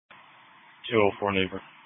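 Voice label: Police Patrol Dispatch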